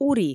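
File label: "ури" Komi, female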